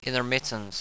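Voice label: "intermittent", English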